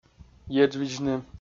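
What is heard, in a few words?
"еджвидзны", Komi, male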